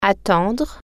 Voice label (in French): "wait for", French